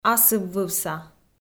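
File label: "асыввывса", Komi-Zyrian, female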